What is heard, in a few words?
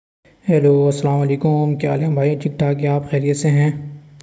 voice message